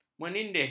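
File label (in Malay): "menindih", Malay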